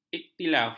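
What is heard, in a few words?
"ikhtilaf", Malay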